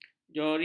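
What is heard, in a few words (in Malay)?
"jori", Malay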